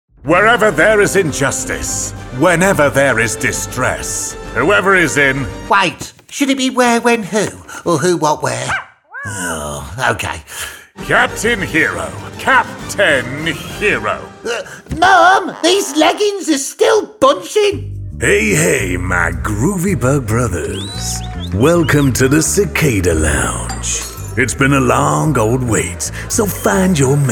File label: Animation; gaming animation demo production